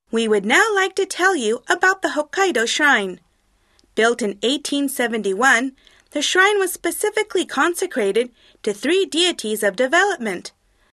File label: english